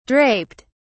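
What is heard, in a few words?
"draped", British English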